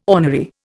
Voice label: "ornery", Indian

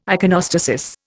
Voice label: "iconostasis", Indian

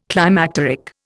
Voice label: "climacteric", Indian

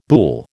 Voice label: "boulle", American

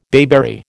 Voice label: "bayberry", American

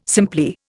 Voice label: "simply", Indian

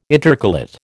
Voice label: "intercalate", American

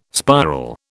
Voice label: "spiral", British

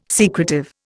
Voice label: "secretive", Indian

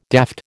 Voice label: "daft", American

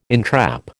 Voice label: "entrap", American

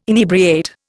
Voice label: "inebriate", Indian

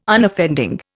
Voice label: "Unoffending", Indian

